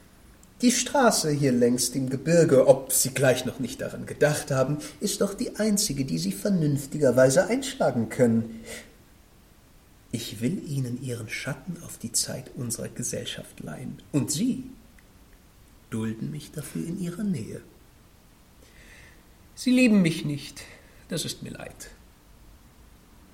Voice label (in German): Horspiel; Schlemihl